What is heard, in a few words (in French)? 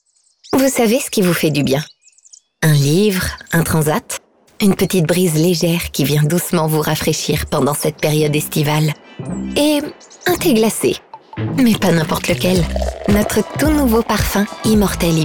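Bande Annonce, Comédienne, Voix off; MA NOUVELLE VIE A LA PLAGE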